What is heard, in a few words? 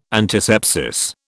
"antisepsis", British